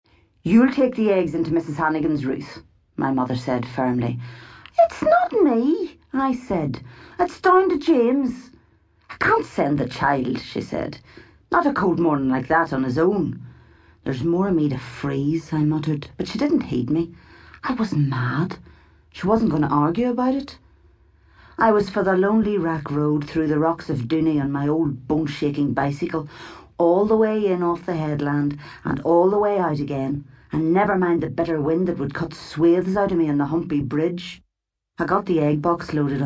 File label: audiobook; Along a Lonely Road